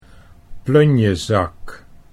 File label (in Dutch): "plunjezak", Dutch